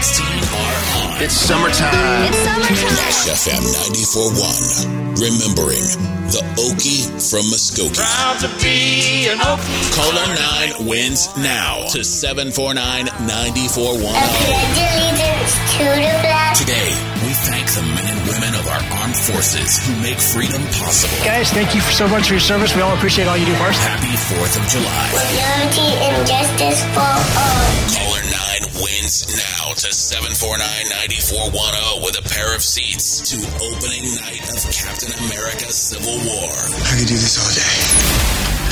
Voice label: Country Imaging